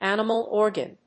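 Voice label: "animal organ", English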